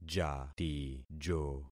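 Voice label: "jatijo", concatenated syllables; Affixing experiment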